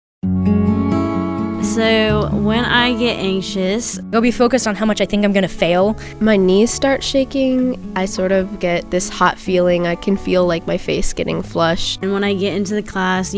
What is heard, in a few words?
PSA